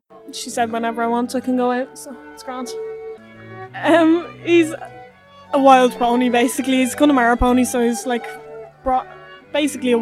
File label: Ireland County Meath Female 20s monologue